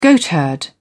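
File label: "goatherd", British English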